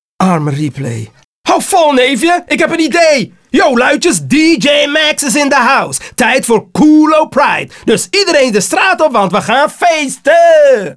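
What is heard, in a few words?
Bonus Dutch; arthur and the revenge of maltazard; wii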